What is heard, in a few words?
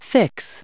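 "fix", US English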